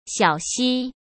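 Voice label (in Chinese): "小溪", Mandarin Chinese; hsk; chinese-flashcards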